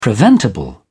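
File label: "preventable", British English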